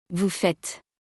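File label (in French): "vous faites", French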